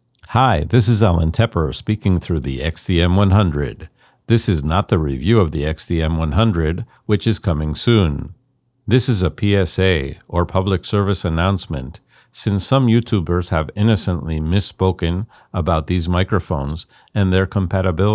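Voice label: XDM-100 noise reduction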